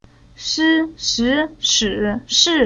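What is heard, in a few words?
"Shi", chinese syllable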